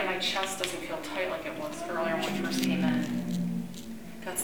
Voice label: talking strange sound after siren sound; Old 6th Detroit Precinct

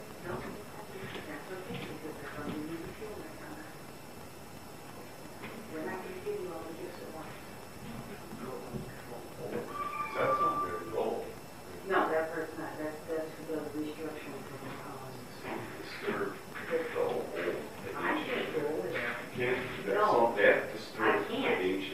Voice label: talking then music sound no response; EVPS

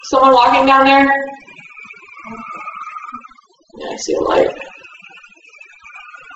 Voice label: EVPS asking a question then response; Waverly Hills Saniterium EVPS; Spirit Chasers of the Unknown

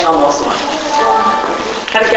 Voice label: possble child with another sound unexplained; Waverly Hills; EVPS

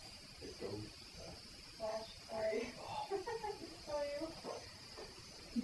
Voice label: whisper; Salems Haunted Barn; Spirit Chasers of the Unknown; Salem Haunted Barn EVP

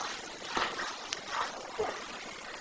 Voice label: childs voice; School House in Michigan

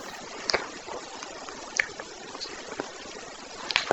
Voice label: Mans whisper; School House in Michigan

